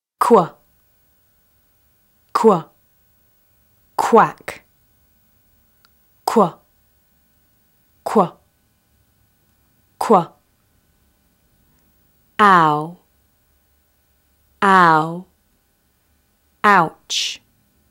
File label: Phonics Spoken Guide Qu Ou